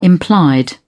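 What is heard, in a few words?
"implied", British English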